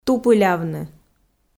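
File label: "тупылявны", Komi, female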